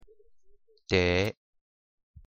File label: "茶", Minnanyu; thee-in-andere-talen